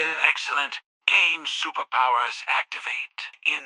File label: happy; fireball; fertig classic games